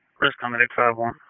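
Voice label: Wake County EMS Dispatch, p25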